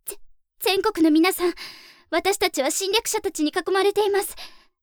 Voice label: ぜ、全国の皆さん、私達は侵略者達に囲まれています…！, アナウンサー; ハイグレボイス集

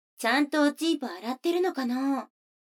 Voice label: 女の子 台詞, ASMR

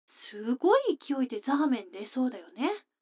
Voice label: 女の子 台詞

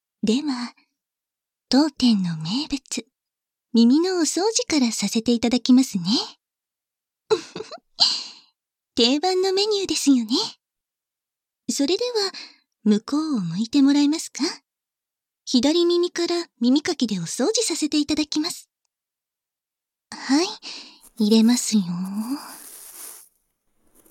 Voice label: 耳かき両側, 効果音 あり 小さめ; 簡易通常

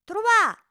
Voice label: 「とろわぁ」, 明るい声

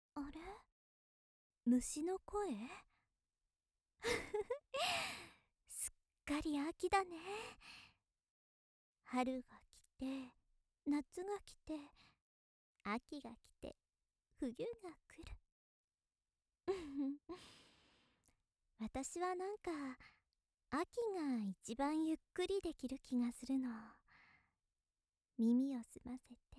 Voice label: 優しいお姉さん 秋だね

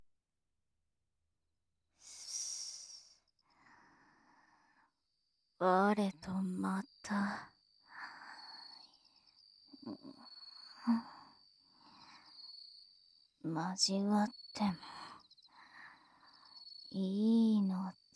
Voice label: お狐様の寝言

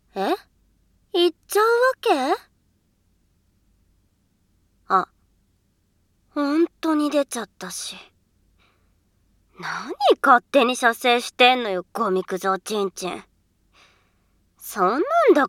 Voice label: 声のみ ノイズ除去なし; 反転ver

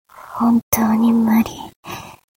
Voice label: mob 女子 台詞

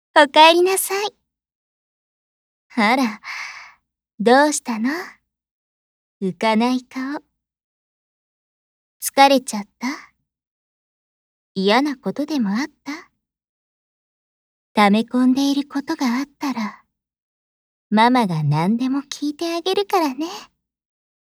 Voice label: お姉さんママ 甘やかし

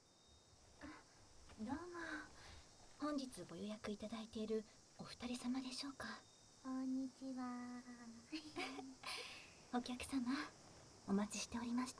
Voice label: 海辺の旅館へようこそ, ASMR

